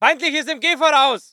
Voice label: voice ger infantry; Red Orchestra 41-45